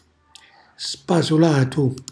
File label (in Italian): "spasulatu", Calabrese; cucina calabrese